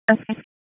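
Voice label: Raleigh Police Special Operations Tactical, p25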